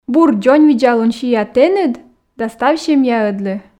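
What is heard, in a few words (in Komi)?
бур дзоньвидзалун сиа тэныд да став семьяыдлы, Komi, female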